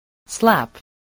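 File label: "slap", English word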